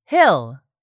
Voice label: "hill", English; 3000 words